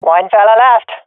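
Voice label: enemies left one, swat female; vo; agents; Source Model Packs; Counter Strike Model Pack; CS2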